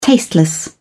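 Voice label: "tasteless", British English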